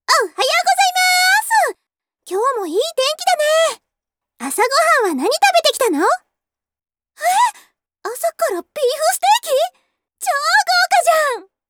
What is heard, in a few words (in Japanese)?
ura genki